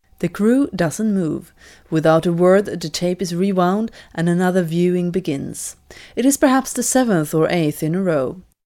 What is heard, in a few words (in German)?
englisch schwedisch, Telefonansagen, weiblich; Sprecherin